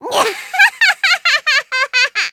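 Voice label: victory, Japanese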